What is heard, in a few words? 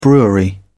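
"brewery", English, British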